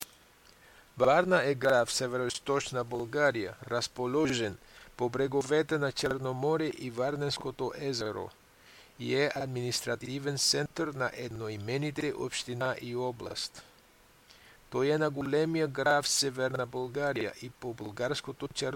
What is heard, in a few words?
bulgarian practice; varna